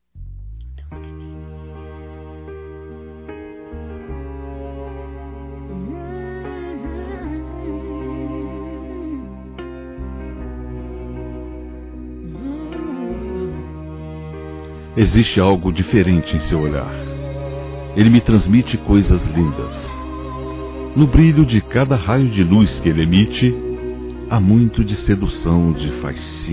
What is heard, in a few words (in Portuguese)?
Conquista Romantica Suave Masculino; Telemensagens; Conquistas Cantadas paqueras; Telemensagens 2017